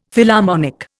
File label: "Philharmonic", Indian; Dictionary